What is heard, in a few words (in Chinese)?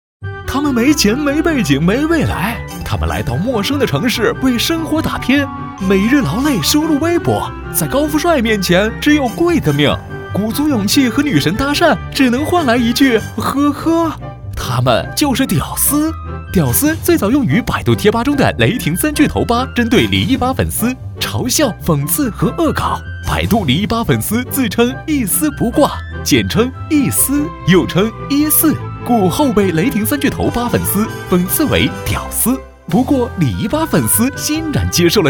男